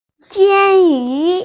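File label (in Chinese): "jin1yu2", Mandarin Chinese, female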